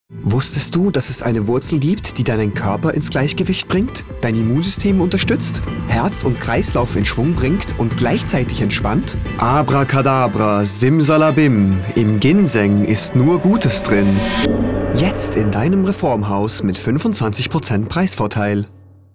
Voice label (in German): WERBUNG; GINSEN